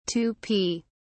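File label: "tupi", British English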